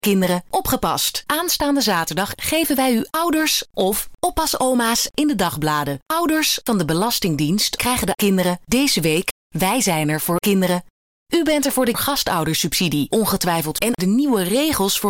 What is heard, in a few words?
radio spot; viaviela